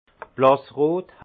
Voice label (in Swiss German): "blassrot", alsace; vin; rouge clair